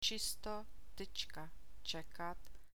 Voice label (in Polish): cz przyklady, czeski alfabet; čisto, tyčka, čekat; czysto, tyczka, czekać